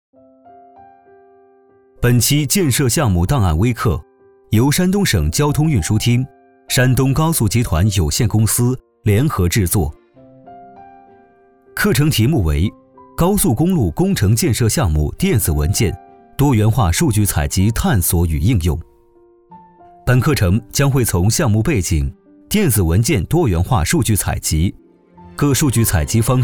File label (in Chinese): 男 专题片 客观讲述